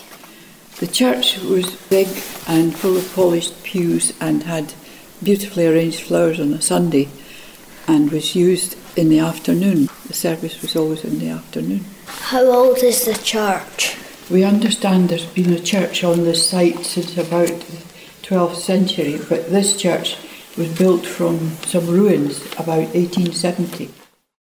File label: Interview